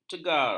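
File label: "cegar", Malay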